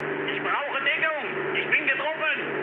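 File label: German aircraft damaged mood med, speech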